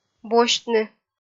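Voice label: "босьтны", Komi-Zyrian, female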